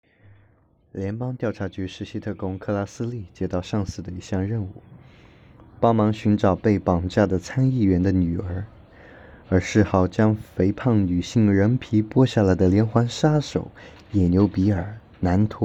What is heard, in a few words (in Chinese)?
电影解说